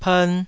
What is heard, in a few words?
"pern2", Taiwanese